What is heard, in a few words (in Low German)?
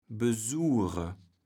"besure", kaevels platt